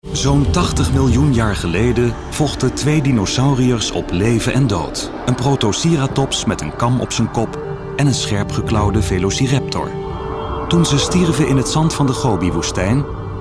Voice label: documentary